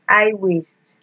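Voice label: "high waist", Nigerian Pidgin, female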